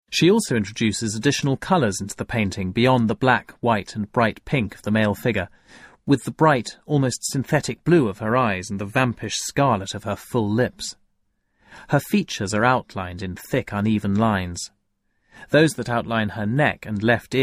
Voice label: Descriptive Narration; The Handsome Pork Butcher by Francis Picabia; Stage 4